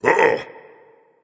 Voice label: surprise, voice; fenrir